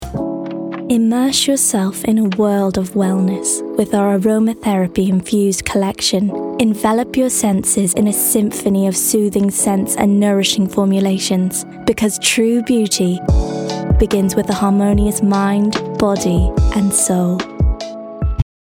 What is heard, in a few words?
female Northern Irish English aromatherapy infused wellness collection; commercial